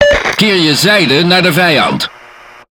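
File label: Speech Tutorial, Dutch; World Of Warships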